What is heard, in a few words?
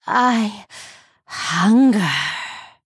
Female Blood Elf Wake Up